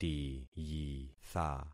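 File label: "tiyitha", concatenated syllables; Affixing experiment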